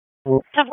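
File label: Sheriff's Office Dispatch; WSO DISP 3; wake; p25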